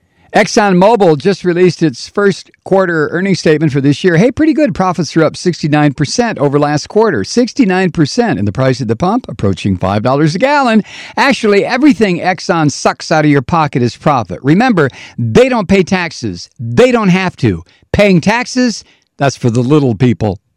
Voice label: promo